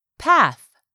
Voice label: "path", english; 3000 words

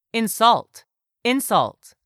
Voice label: "insult", English; 3000 words

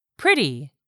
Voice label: "pretty", English; 3000 words

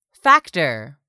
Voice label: "factor", English; 3000 words

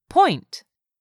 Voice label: "point", English; 3000 words

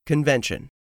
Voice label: "convention", english; 3000 words

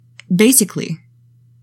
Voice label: "basically", english; 3000 words

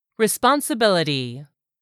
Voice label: "RESPONSIBILITY", english; 3000 words

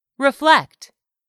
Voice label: "REFLECT", English; 3000 words

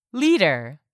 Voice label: "LEADER", english; 3000 words

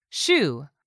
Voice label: "shoe", English; 3000 words

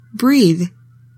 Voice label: "BREATHE", English; 3000 words

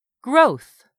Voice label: "growth", English; 3000 words

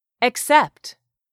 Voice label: "EXCEPT", english; 3000 words

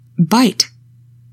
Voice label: "bite", English word; 3000 words

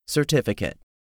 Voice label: "certificate", english; 3000 words

